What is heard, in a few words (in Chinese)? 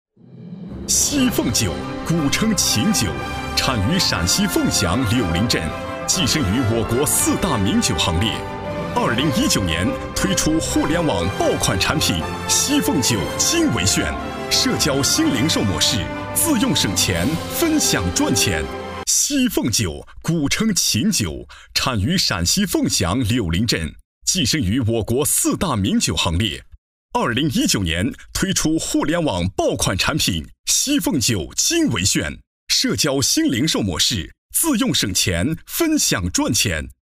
激情广告宣传; 激情广告宣传西凤酒